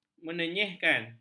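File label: "menenyehkan", Malay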